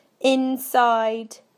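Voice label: "inside", British English; PictureDictionary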